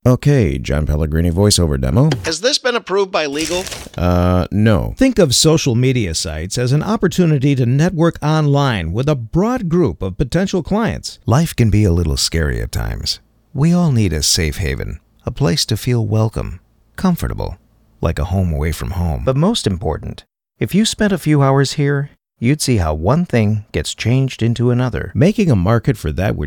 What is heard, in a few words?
Narration VO